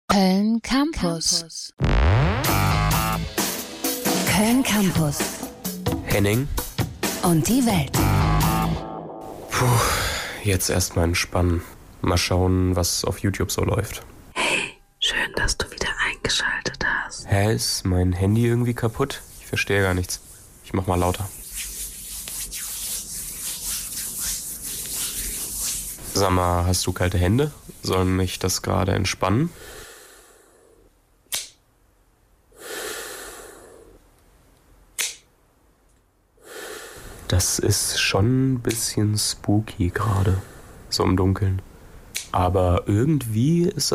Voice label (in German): asmr